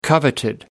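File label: "coveted", British English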